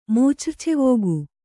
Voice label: "ಮೂಚ್ರ್ಛೆವೋಗು", Kannada

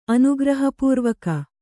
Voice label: "ಅನುಗ್ರಹಪೂರ್ವಕ", Kannada

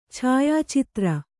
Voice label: "ಛಾಯಾಚಿತ್ರ", Kannada

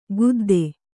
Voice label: "ಗುದ್ದೆ", Kannada

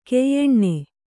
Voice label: "ಗಾಯನಿ", Kannada

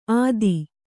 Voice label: "ಅತ್ತಿ", Kannada

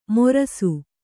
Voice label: "ಮೊರಸು", Kannada

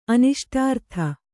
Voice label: "ಅನಿಷ್ಟಾರ್ಥ", kannada

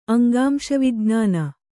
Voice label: "ಅಂಗಾಂಶವಿಜ್ಞಾನ", kannada

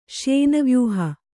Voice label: "ಶ್ಯೇನವ್ಯೂಹ", kannada

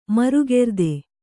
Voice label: "ಮರುಗೆರ್ದೆ", kannada

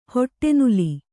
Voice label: "ಹಿಟ್ಟುನೆಲ್ಲಿ", Kannada

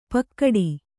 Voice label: "ಪಕ್ಕಡಿ", Kannada

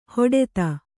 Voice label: "ಹಟಾತ್ತು", kannada

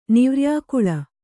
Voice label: "ನಿವ್ರ್ಯಾಕುಳೆ", kannada

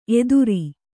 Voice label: "ಎತ್ತರ", Kannada

